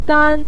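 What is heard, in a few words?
"dan" Mandarin Chinese, neutral tone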